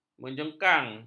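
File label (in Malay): "menjengkang", Malay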